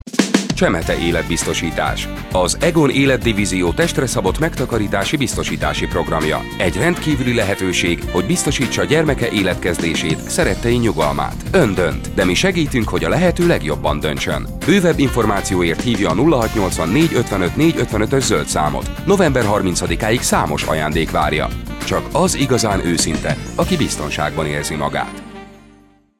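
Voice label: hungarian male voice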